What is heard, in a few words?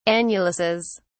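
"annuluses", British English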